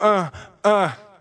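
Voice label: "uh uh", male; ooh ahh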